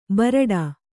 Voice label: "ಬರಡಾ", kannada